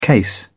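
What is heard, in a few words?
"case", British English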